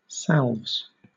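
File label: "salves", British English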